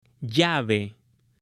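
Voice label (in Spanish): "llave", Spanish, male; UTENSILIOS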